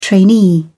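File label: "trainee", British English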